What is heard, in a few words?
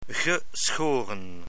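"geschoren", Dutch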